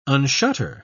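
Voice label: "unshutter", British English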